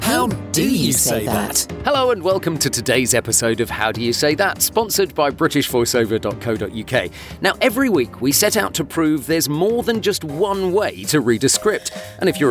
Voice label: podcast host

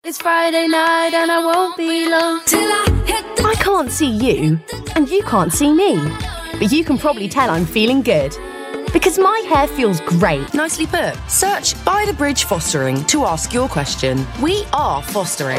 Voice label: commercial

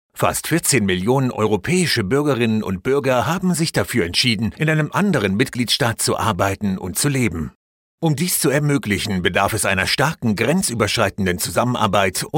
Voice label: german voice corporate

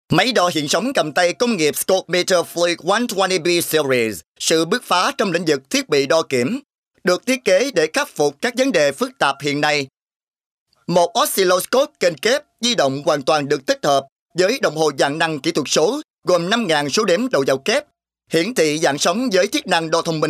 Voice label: vietnamese voices vietnamese male commercial

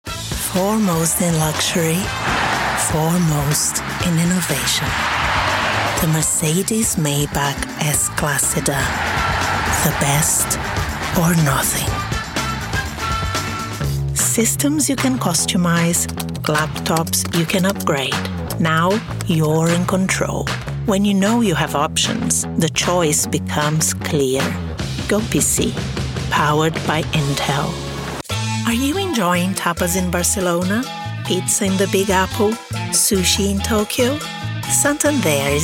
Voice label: brazilian female english commercial

